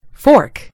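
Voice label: "fork", British English; gcompris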